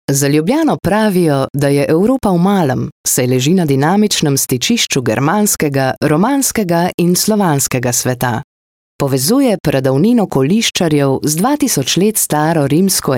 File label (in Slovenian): slovenian corporate